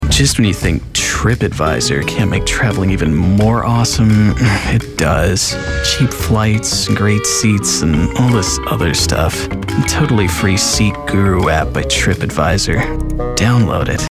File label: humorous snarky quirky